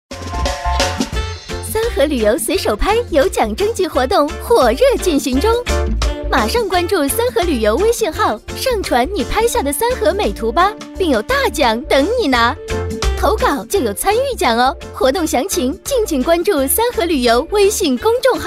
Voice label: 女 电台广告 旅游; 三河旅游; 国语女声配音

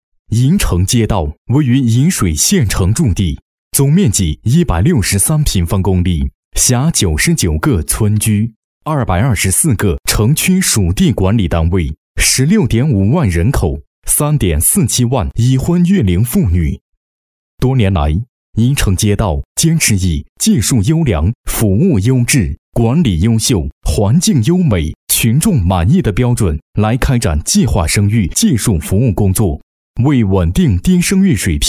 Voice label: 男, 国语男声配音, 浑厚磁性; 沂水计生

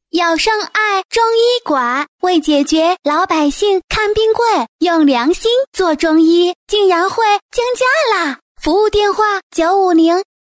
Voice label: 女 童声模仿 降价通知; 圣爱中医馆

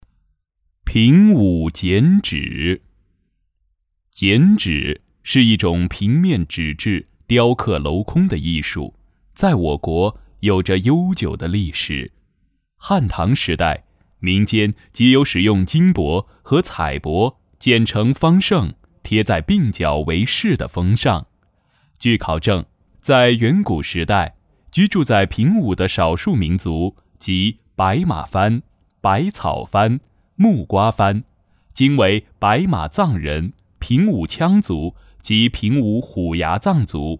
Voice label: 男, 纪录片, 剪纸; 平武

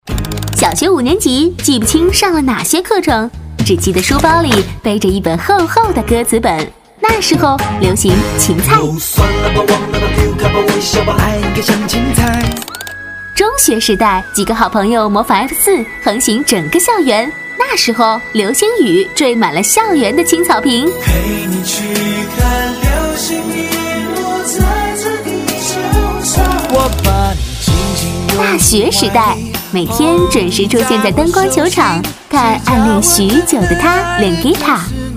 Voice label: 女 栏目片头 长

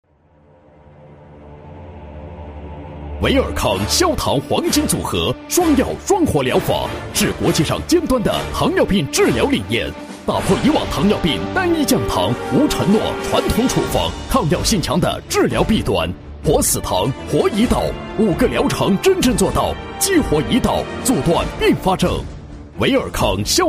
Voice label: 男 广告 激情 药品, 国语男声配音

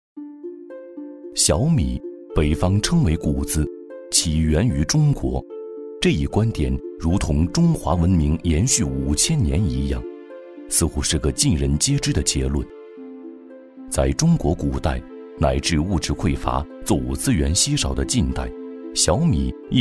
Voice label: 男 舌尖感觉; 小米

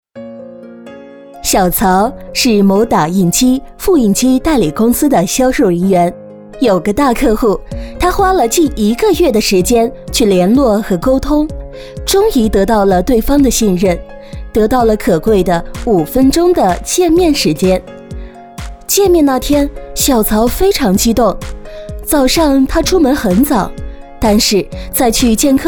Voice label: 女 讲述 销售技巧, 国语女声配音

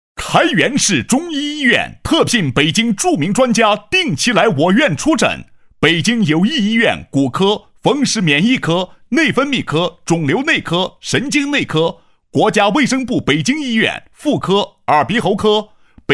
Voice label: 医院广告, 男; 新闻广告专题颁奖; 开源市中医院录音

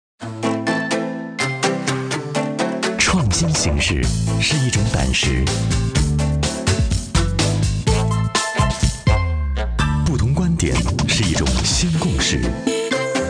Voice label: 男 广告, 国语男声配音; Acer R7 随触精彩

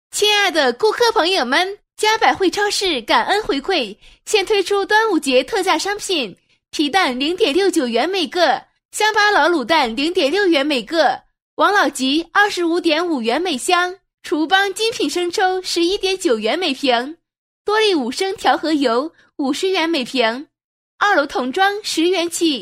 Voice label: 女 广告 超市感恩回馈促销, 国语女声配音 彩铃广告; 嘉佰惠超市

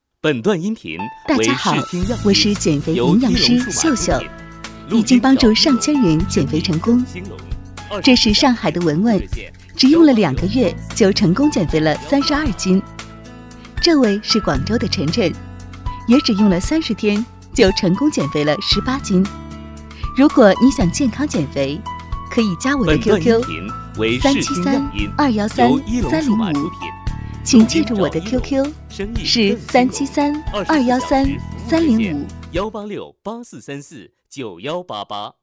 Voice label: 女, 减肥顾问, 减肥瘦身

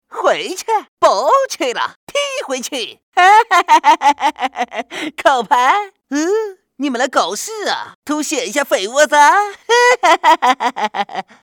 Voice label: 男 棋牌 模仿; 周星驰

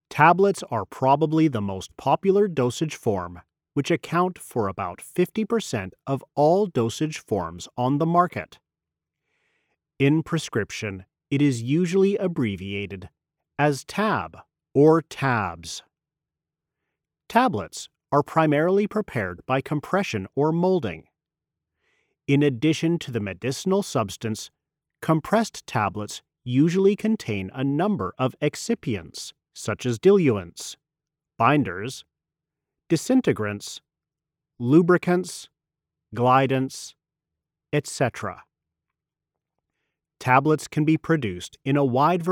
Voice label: 外籍男 化学课件

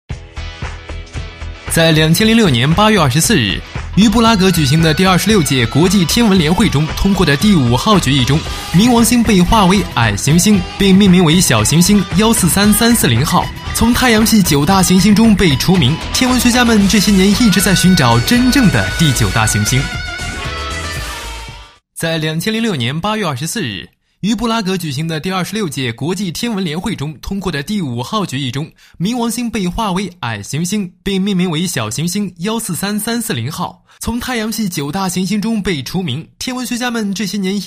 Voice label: 男 轻松风格 寻找第九大行星

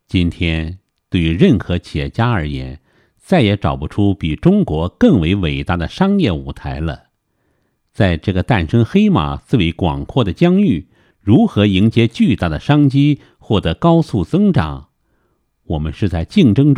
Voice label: 男 有声读物; 总裁执行风暴

